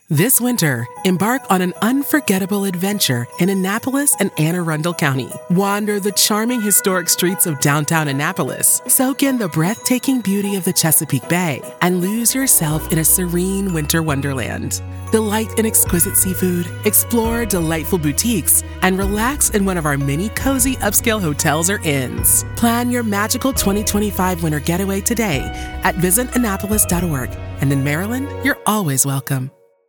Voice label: Television Spots, African-American, authoritative, Conversational Female Voice Over; Annapolis Tourism Tv Promo